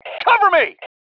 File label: COVER ME, MALE